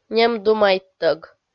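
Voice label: "нем думайттӧг", Komi, female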